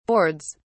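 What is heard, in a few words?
"boards", British English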